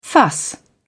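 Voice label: "fuss", British English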